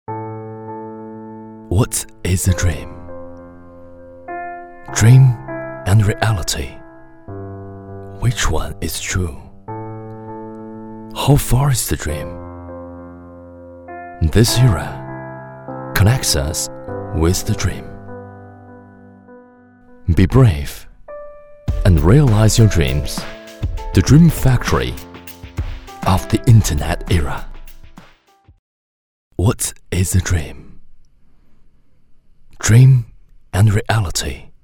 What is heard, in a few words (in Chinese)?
男英 其他 旁白 走心旁白; 英语